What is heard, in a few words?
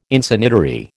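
"Insanitary", American English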